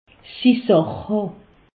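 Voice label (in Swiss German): "sach", Alsatian; medecine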